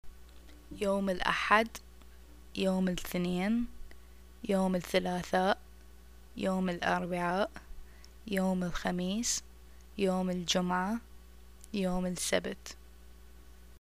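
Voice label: days, arabic, Iraqi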